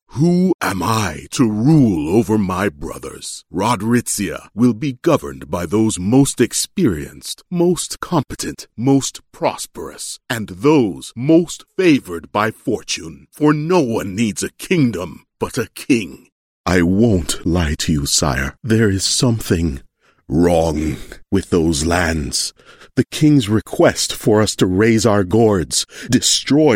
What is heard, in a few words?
english canadian video games